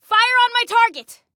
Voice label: Target Fire On My, Female; Female 02